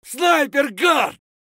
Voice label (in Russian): "sniper gad" scream; tarkov